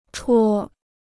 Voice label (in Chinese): "戳", Chinese